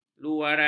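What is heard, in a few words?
"luaran", Malay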